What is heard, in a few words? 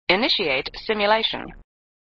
Voice Computer "Initiate Simulation"; flipper; sttng